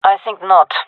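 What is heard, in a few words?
disagree, female; vo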